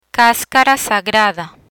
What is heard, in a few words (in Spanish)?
"Cascara sagrada", Spanish; gastrointestinal agents; learn spanish